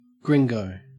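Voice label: "gringo", Australian English; pronunciations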